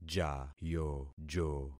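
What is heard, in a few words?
"jayojo", concatenated syllables; Affixing experiment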